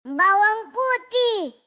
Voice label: Suara Ceria Anak Bawang Putih!

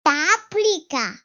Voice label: Suara Ceria Anak "Paaprika!"

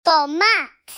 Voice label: Suara Ceria Anak Tomatt!

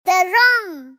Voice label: Suara Ceria Anak Terong

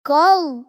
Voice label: Suara Ceria Anak Koll!

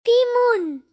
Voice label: Suara Ceria Anak "Tiimunn!"

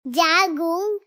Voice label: Suara Ceria Anak "Jagungg"

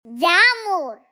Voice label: Suara Ceria Anak "Jaamur!"

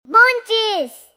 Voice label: Suara Ceria Anak "Buncis!"

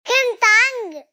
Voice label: Suara Ceria Anak Kentangg!

